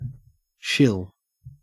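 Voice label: "shill", Australian English; pronunciations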